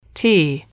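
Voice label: "tea", English, female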